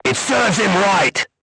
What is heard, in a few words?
voice message direct hit, crew tanks portuguese